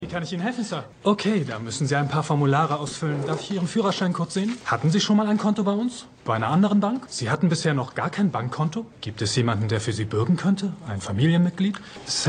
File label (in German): Bankangestellter, Stimmproben; Shameless